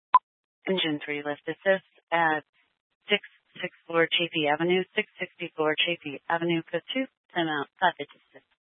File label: Grand Junction Fire Department Dispatch, Scanner audio recording